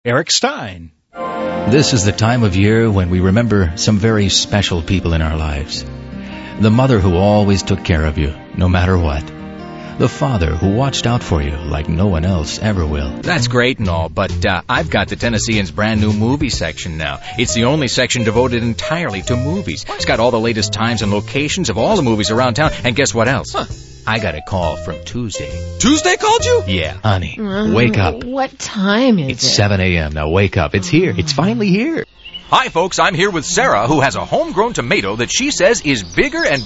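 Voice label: Male Talent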